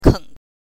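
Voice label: "keng", Mandarin Chinese, neutral tone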